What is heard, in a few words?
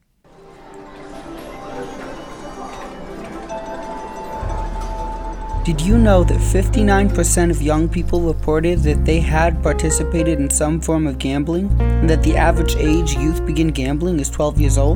Radio PSA